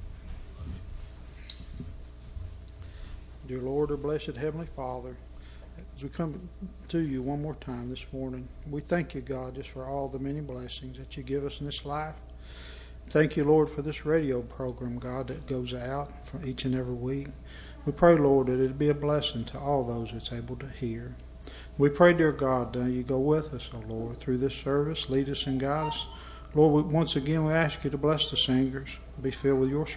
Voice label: Broadcast Prayer